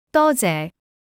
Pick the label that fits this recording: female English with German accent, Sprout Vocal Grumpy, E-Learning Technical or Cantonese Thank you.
Cantonese Thank you